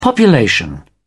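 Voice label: "population", British English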